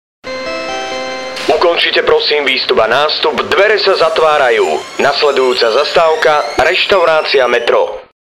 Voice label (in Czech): reklamni spot; restauraciametro